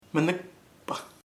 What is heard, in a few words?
dirty, chamacoco; sucio